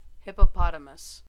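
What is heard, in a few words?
"hippopotamus", American English; pronunciations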